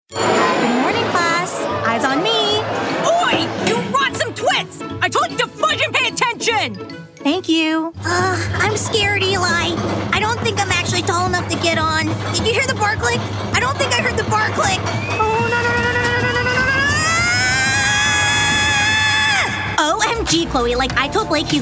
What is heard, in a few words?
animation